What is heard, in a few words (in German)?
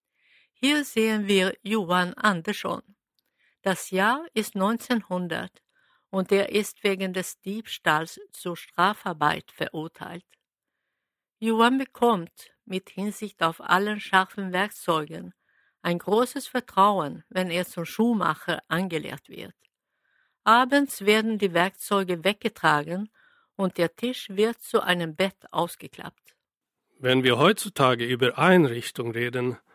cell, audioguider